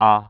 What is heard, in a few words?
man a